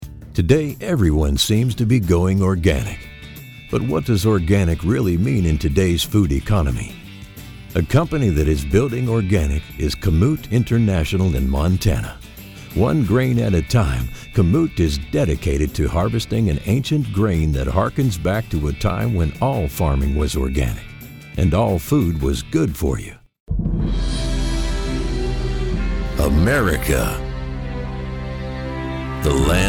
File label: Southern Country